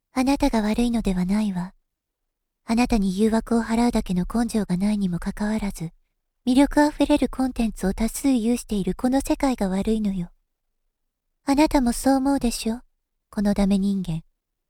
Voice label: female